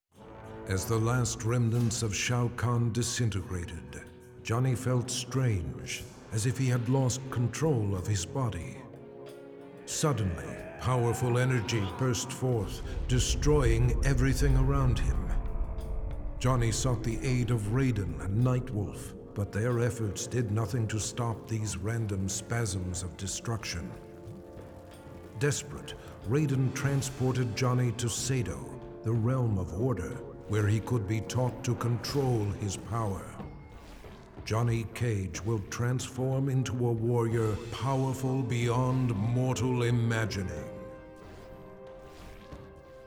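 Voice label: music voice over endings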